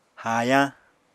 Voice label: "haayañ", apatani